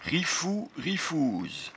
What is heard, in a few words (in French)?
"riffou", patois jura